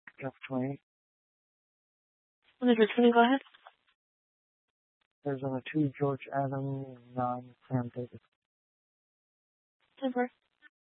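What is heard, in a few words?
Tempe Police Department, scanner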